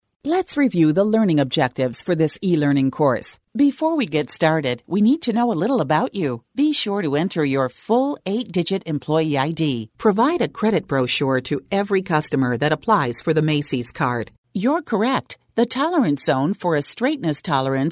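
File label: English, American, female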